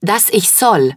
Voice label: german Queen; age2